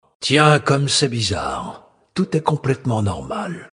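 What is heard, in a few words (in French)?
Male Blague, voix françaises; Sacrenuit; Bizarre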